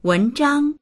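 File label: "wen2zhang1", Mandarin Chinese; 文章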